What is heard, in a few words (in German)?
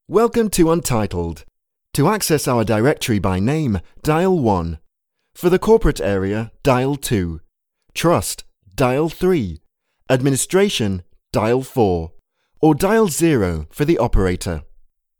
Telefon